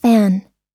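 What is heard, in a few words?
"fan", English; oxford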